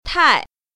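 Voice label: "tai4", Mandarin Chinese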